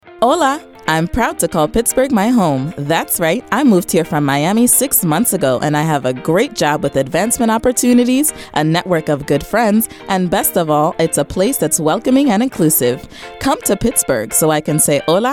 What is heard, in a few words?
PSA HISPANIC; VIBRANT PITTSBURGH